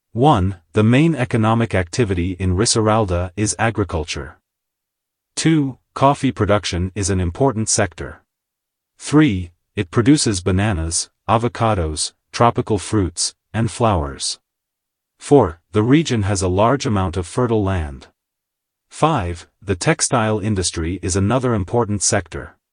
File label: dictation